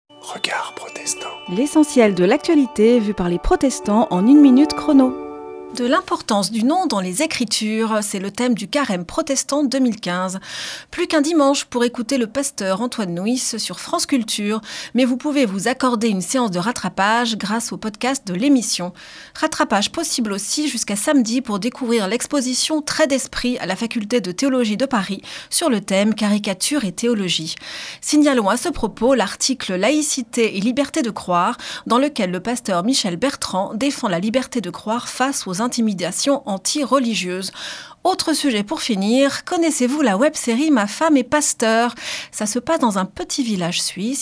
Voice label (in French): Spot radio; Regards protestants